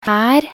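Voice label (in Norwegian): "her", Norwegian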